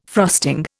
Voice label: "frosting", Indian

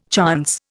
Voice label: "chance", Indian

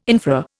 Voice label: "infra", Indian

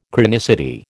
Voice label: "chronicity", American

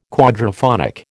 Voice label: "quadraphonic", American

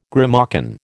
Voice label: "grimalkin", American

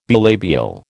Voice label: "bilabial", American

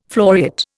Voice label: "floriate", Indian

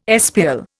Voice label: "espial", Indian

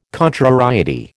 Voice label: "contrariety", American

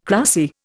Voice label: "cracy", Indian

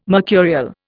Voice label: "mercurial", Indian

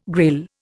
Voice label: "Grail", Indian

